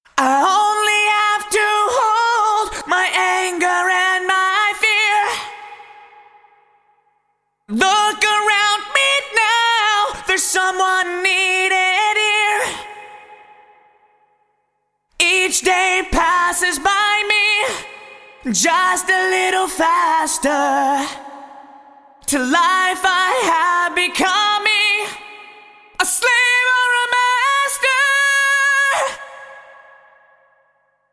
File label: Vocals Sony MU R201 Plate Vintage Digital